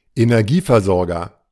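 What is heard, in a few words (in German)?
"Energieversorger", German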